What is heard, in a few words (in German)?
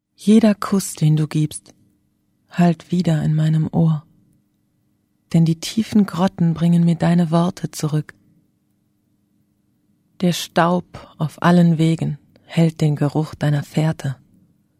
deutsch lyrik; desolacion